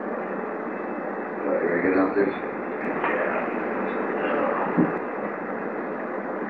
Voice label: "No" sound; EVP, or electronic voice phenomena, are said to be the disembodied voices from spirits